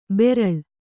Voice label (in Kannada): "ಬೆರಳ್", Kannada